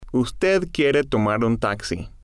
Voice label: usted Quiere Tomar Un Taxi, Spanish